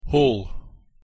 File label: pronunciation en hall; the home